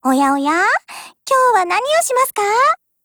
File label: HELLO JP